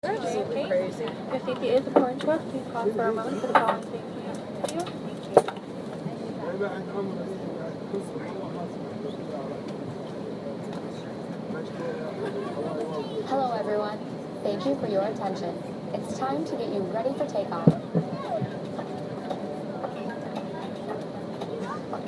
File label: Airline Safety